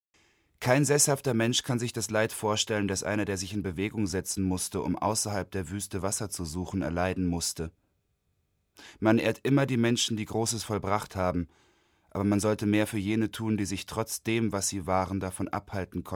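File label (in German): erzaehlung, deutsch männer; sprecher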